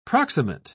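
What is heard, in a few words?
"proximate", British English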